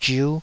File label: "zhiu5", Taiwanese syllable